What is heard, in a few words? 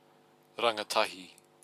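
"rangatahi", Māori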